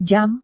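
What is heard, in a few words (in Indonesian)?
"jam", Indonesian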